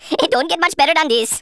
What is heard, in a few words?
victory, Speech Rasta